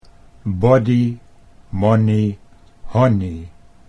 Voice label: "body money honey", Dutch